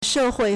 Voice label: "she4hui4", Chinese